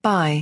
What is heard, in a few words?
"buy", English; express english